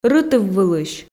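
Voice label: "рытыввылысь", Komi, female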